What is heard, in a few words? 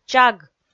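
"чаг", Komi, female; kpv audio dict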